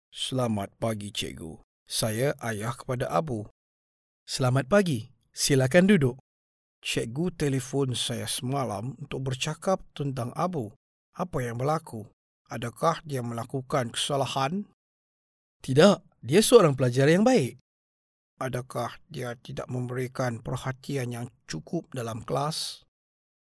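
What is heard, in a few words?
Malay, Malaysia, male; voice over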